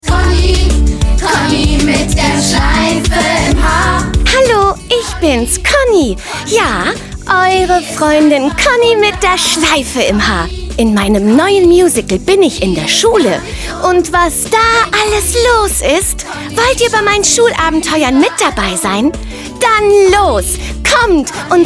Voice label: Radio Trailer; Conni